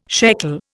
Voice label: "shekel", Indian